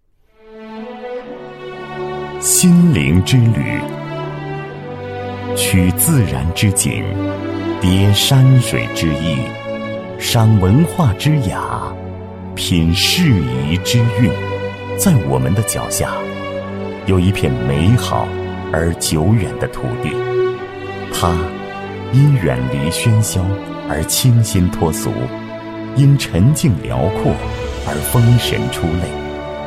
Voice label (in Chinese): 男 旅游宣传; 世遗唐崖 森林咸丰